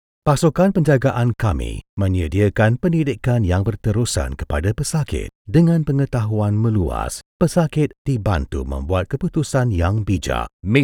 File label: malay e-learning